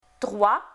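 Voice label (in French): "Trois", French; Lingvo; francais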